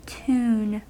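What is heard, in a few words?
"tune", American English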